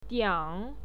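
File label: "diang" third tone, pinyin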